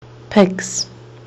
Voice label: "pigs", Kiwi English